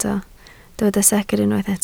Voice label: "secr", Welsh